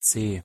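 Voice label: "c" German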